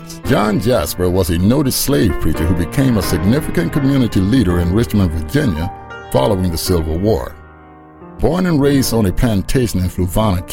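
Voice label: NARRATIONS, voiceover; JOHN JASPER SLAVE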